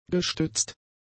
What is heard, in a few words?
"gestützt", German; adjectives